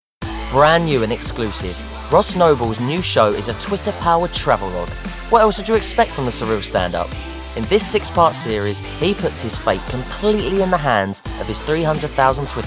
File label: Promo